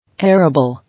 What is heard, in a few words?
"Arable", English